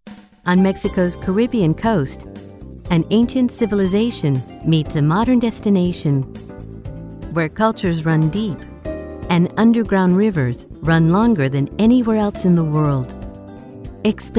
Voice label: English Spanish Web Promo